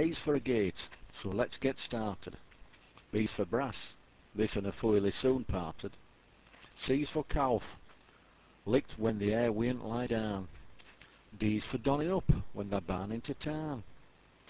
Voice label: twest riding alphabet, yorkshire dialect